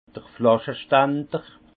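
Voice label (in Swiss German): "flasche stander", Haut Rhin; vin; chevalet à bouteilles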